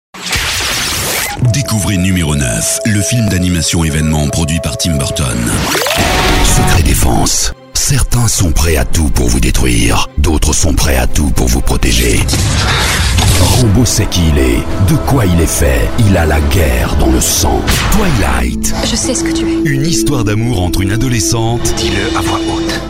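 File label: french stationvoice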